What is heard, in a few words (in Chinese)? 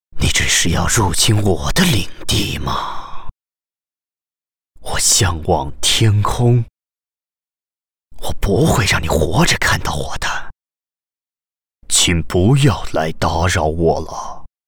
男 游戏样音 低沉